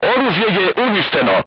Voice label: voice message weapon destroyed, crew tanks serbian